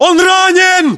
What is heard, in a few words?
inform killfirm infantry, battlechatter ru; localized english